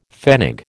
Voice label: "pfennig", American